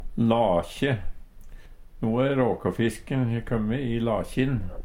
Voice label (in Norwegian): "lakje", Numedalsmål; No e råkåfisken kåmme i lakjin.; Hushald, mat, drikke